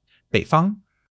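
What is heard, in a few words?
"bei3 fang1", Chinese; HSK3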